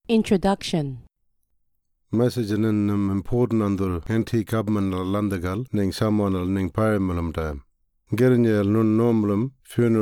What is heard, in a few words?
Burial Notification Anmatyerr; burial and cremation; information recorded in 18 aboriginal languages; Burial and Cremation Act 2022